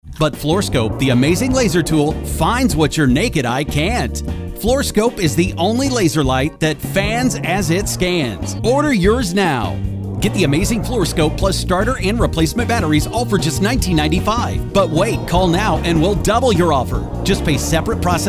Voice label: hard sell